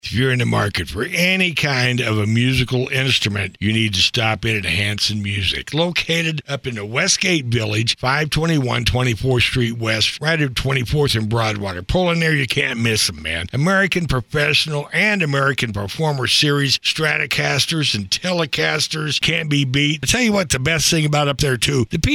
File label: Radio Ad